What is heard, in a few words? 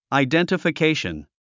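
"identification", English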